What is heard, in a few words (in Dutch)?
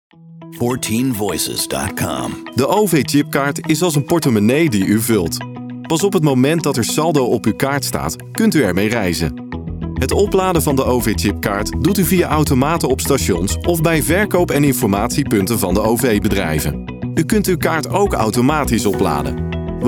Narratief